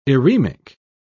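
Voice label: "eremic", British English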